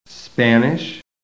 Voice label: "Spanish"

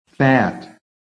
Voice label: "FAT", american

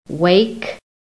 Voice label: "WAKE", american; PHONETIC

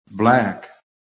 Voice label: "black", American

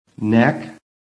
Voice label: "NECK", american

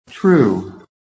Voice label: "true", american; PHONETIC

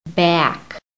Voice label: "back", american; PHONETIC REFERENCE

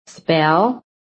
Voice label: "SPELL", american; PHONETIC

